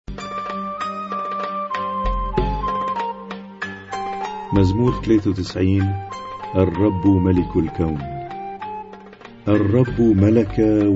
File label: Arabic Psalm Zaboor